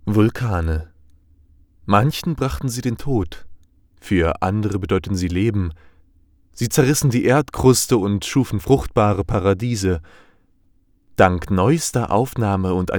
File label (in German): Trailer Vulkane